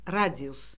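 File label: "radius", Azerbaijani; Orfoqrafiya Lüğəti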